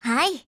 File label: AGREE JP; BrowningHP